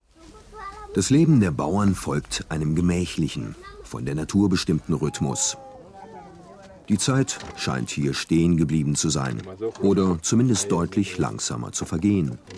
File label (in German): reportage; LOFT VOICES